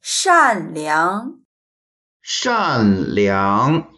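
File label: "shan4liang2", Mandarin Chinese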